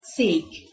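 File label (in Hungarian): "szek" Hungarian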